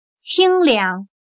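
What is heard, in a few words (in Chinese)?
"清凉", Chinese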